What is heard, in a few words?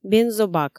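"бензобак" Komi, female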